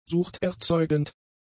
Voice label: "suchterzeugend", German; adjectives